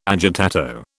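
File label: "agitato", British English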